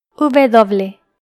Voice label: "uve doble", Spanish